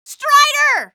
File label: strider, female; Half-Life 2